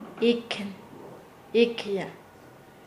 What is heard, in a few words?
Nasa Yuwe; matar; paez